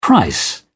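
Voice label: "price", British English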